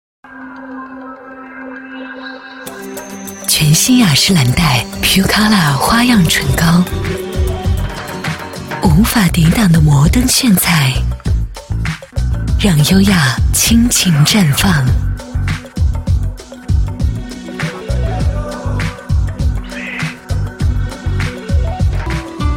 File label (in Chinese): 女 高端品质感; 雅诗兰黛pulecolor